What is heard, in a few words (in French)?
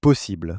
"possible", French